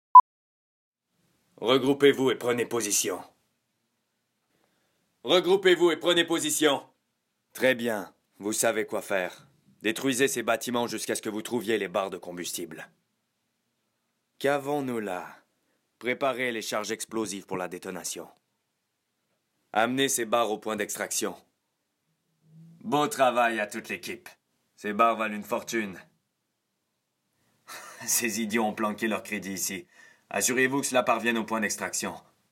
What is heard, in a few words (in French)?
Naration